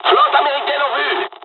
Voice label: dialog, dialogs french; midway; War Thunder